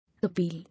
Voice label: "Appeal", English

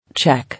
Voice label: "Check", English

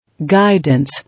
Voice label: "Guidance", English

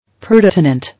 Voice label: "Pertinent", English

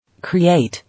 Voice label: "Create" English

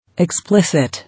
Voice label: "Explicit", English

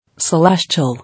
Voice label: "Celestial", English

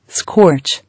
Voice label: "Scorch", English

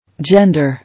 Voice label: "Gender", English

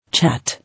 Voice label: "Chat", English

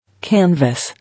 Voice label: "Canvas", English

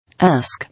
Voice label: "Ask", English

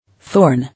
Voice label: "Thorn", English

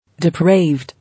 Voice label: "Depraved", English

